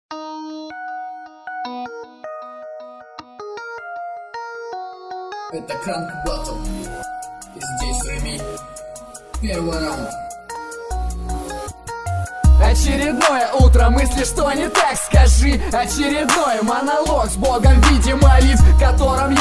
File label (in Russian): monolog